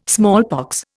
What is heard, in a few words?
"smallpox", Indian